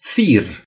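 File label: "thread", Romanian; gcompris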